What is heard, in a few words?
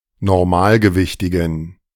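"normalgewichtigen", German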